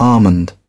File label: "almond", British